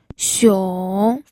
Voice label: "xiong3", pinyin